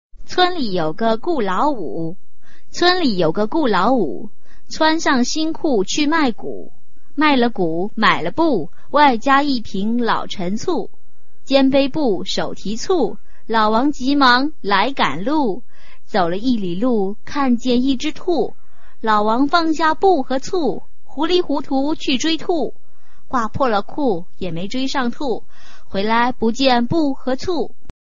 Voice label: chinese tongue twister